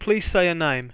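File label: please say a name, English; Samsung SGH-i310; Voice Sounds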